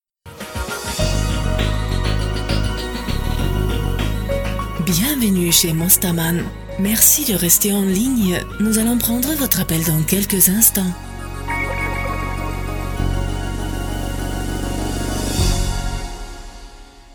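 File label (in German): französisch Warteschleife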